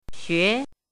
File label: "xue2", pinyin